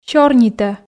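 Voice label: "сёрнитӧ", Komi, female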